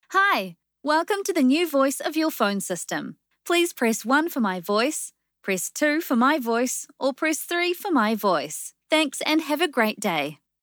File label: NZ Female Phone